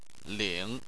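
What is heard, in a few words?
"ling", Chinese, third tone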